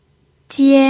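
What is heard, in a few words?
"jian", first tone, Mandarin Chinese pinyin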